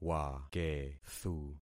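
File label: "wakethu", concatenated syllables; Affixing experiment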